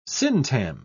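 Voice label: "syntagm", British English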